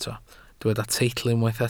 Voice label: "teitl", Welsh